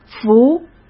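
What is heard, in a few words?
"扶", Mandarin Chinese; hsk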